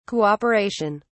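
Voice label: "cooperation", British English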